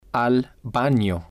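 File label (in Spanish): al Bano, spanish, male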